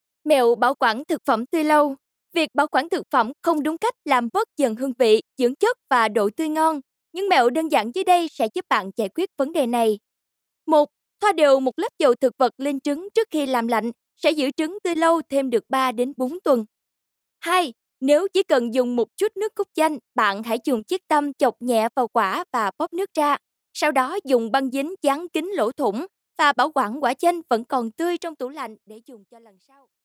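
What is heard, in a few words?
Vietnamese female voice over, sales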